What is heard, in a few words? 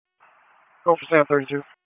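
Sheriff's Office Dispatch; WSO DISP 1; wake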